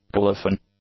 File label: "colophon", English